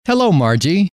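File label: hello, male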